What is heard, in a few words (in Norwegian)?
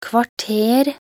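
"kvarter", Norwegian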